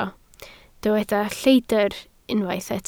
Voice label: "lleidr", Welsh

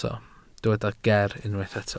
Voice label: "geer", Welsh

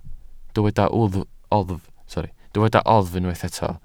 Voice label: "oddf" Welsh

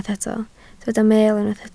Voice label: "meel", Welsh

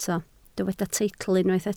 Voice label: "teitl", Welsh

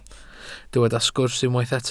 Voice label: "sgwrs" Welsh

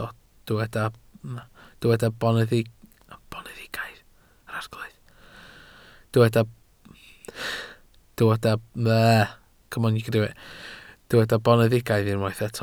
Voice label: "boneddigaidd", Welsh

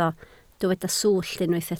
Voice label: "swllt", Welsh

